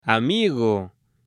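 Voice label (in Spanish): "amigo", Spanish, male; AppLenguaje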